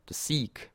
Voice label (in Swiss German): "sieg", alsace